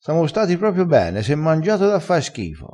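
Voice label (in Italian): "da fa schifo", dialettale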